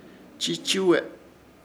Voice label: "Chichuwe", Ishir Chamacoco; encercar